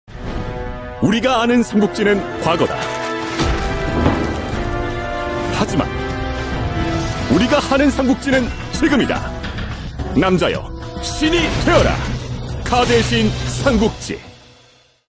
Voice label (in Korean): 광고 쇼츠 당찬 힘있는